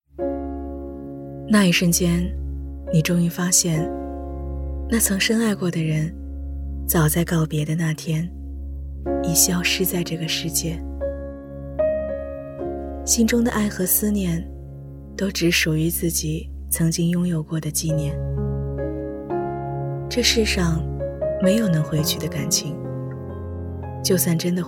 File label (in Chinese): 中文旁白感性温柔